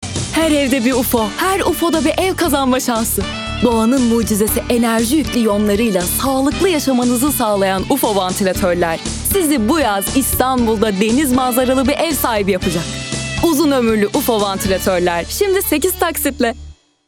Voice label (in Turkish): kampanya tempolu; seslendirme